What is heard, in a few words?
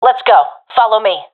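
request follow me, professional female; vo; Counter Strike Model Pack; CS:GO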